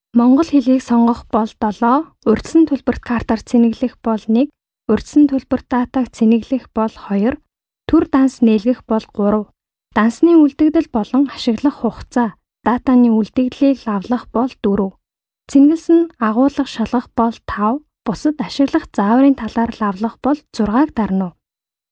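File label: Mongolian, female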